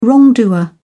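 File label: "wrongdoer", English, British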